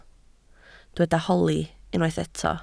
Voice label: "holi", Welsh